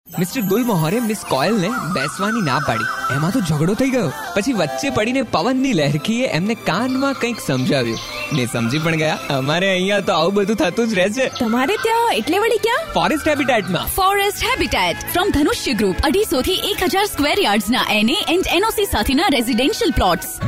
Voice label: advertisement